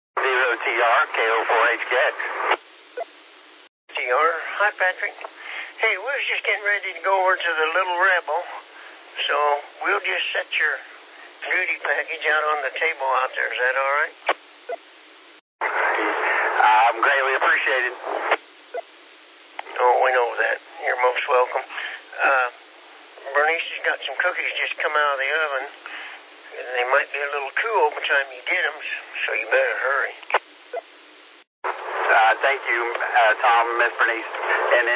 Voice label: qso rec simplex logic